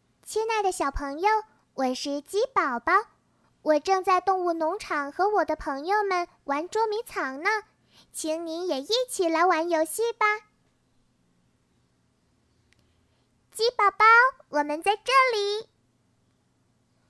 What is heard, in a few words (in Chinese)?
动画 童声 女童, 女国